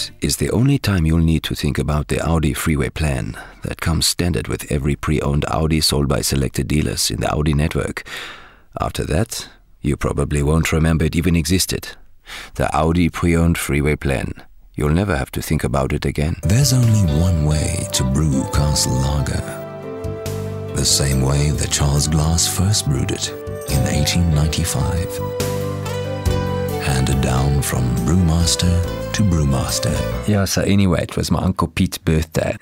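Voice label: south african commercials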